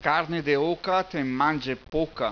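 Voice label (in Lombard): Carne de oca, t'en manget poca., bergamasco; PROVERBI BERGAMASCHI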